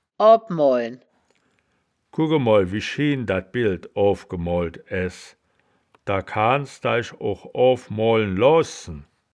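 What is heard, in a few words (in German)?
"oaw moalen", Wittlicher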